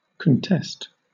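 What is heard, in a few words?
"contest", British English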